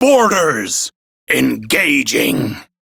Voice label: Crew Enemy Spot, Male, en; Warframe; Railjack Crew; Arbiters Hexis

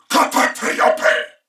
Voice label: Player Spotted Male, en; Dialog; Barks; Battle; Corpus Venus; Warframe; CorpusVenusMaleB

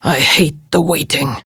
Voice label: Patrol Prime Grineer Female; Tau; Battle Barks

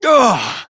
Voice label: Battle Adult

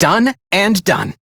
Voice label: Crew Task Done, Male; Dialog; Warframe; Railjack Crew; Perrin Sequence

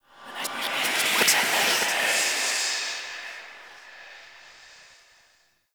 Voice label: Whisper; Warframe; Stalker Acolyte

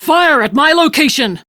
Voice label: Crew See Fire, Steel Meridian Female; Dialog; en; Warframe; RailjackCrew

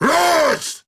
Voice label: Attack Grineer Ghoul Sargeant, en

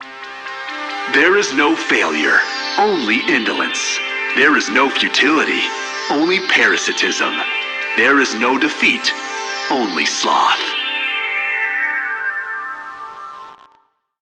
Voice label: PA System, en; Warframe; Solaris Venus

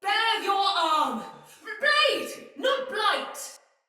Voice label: Female; Battle; Sinecure Soldier; en

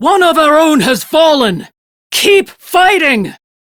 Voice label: Crew Died, Female; Dialog; en; Warframe; Railjack Crew; Steel Meridian

